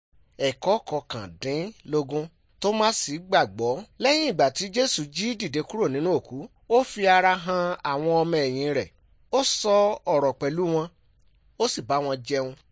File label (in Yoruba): good news yoruba